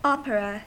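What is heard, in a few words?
"opera", American English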